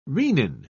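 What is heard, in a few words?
"renin", English, British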